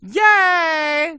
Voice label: Construction Lady Pos; Food Truck Game